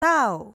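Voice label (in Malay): "tau", Malay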